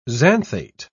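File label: "xanthate", English, British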